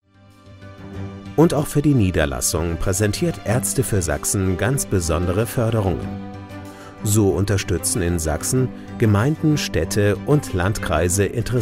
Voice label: DEUTSCH MALE Imagefilm Erklaerfilm; SAEK

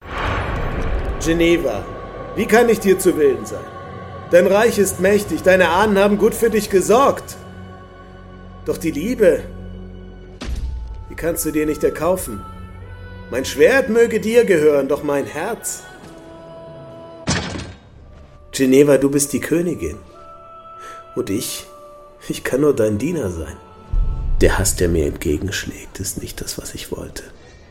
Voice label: DEUTSCH MALE Synchron Game Adventure

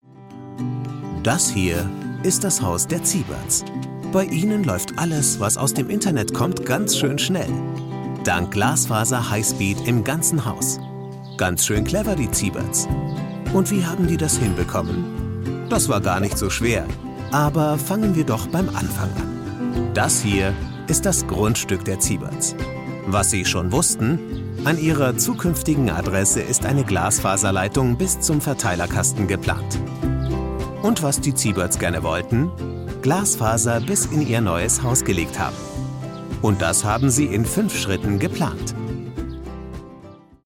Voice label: DEUTSCH MALE Imagefilm Erklaerfilm; Telekom Bauherrenservice

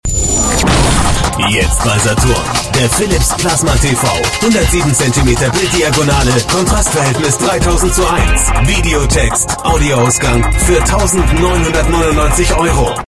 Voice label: DEUTSCH MALE Werbung Off Angebot der Woche; Saturn

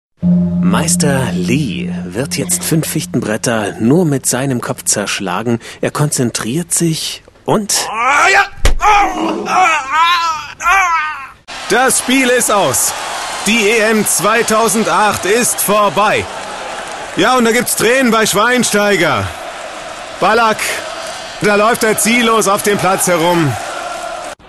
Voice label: DEUTSCH MALE Werbung Rollen Sportreporter

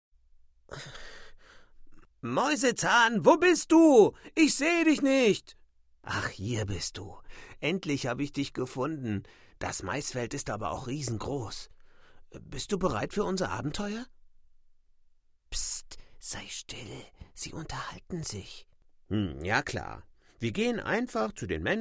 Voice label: DEUTSCH MALE Hoerspiel Kinderhoerspiel

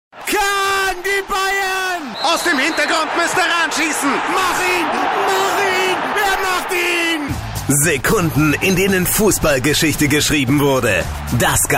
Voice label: DEUTSCH MALE Werbung Off; Madsack; Diese eine Sekunde

